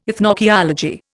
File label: "ethnoarchaeology", Indian